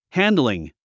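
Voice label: "handling", English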